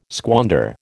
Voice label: "squander", American